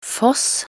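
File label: "foss", Norwegian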